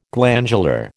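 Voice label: "glandular", American English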